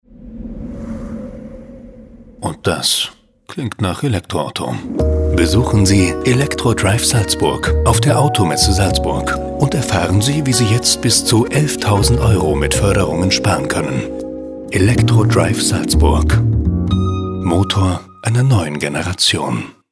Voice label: radio werbung

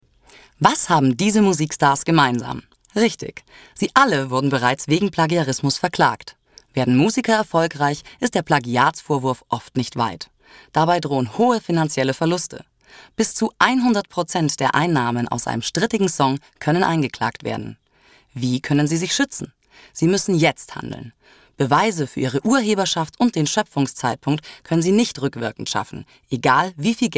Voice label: Demo Sprecher

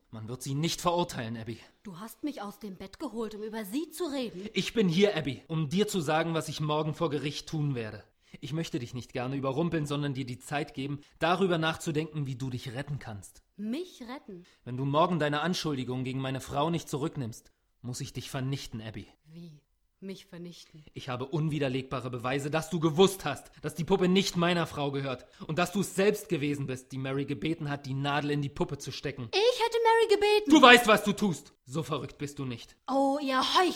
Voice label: hoerspiel

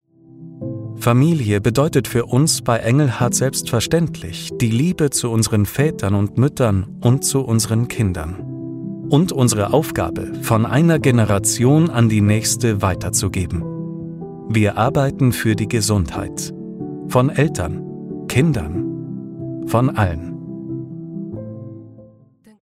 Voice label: imagefilm arzneimittel; engelhard arzneimittel

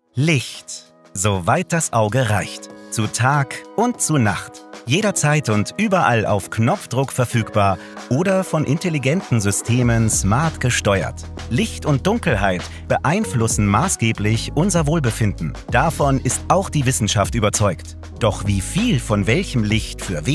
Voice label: erklaerfilm lichtauswirkung auf den menschen

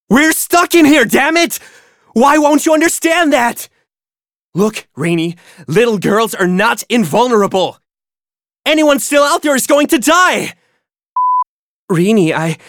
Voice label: englisches

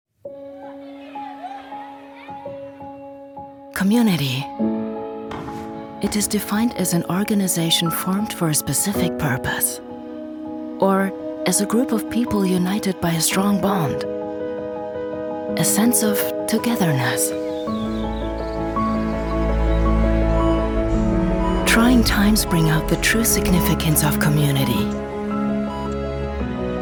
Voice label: englisch; debeka

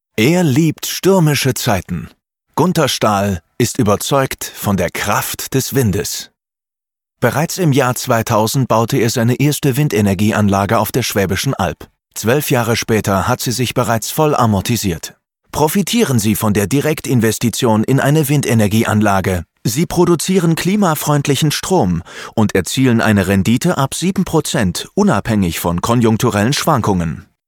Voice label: Industriefilm

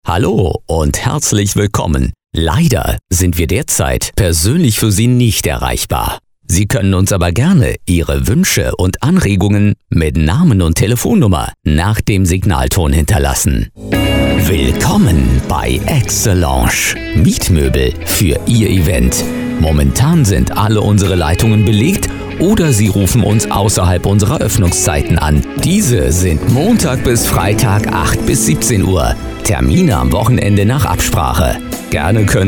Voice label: telefonansagen

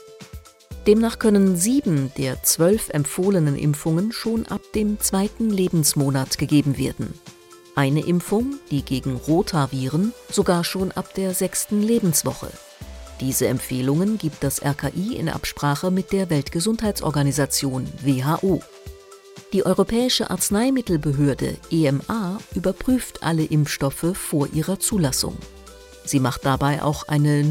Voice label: erklaerfilm impfen; rki; Muttersprache Deutsch

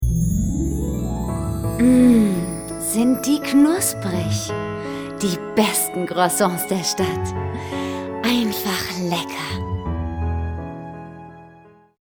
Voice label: werbung croissant

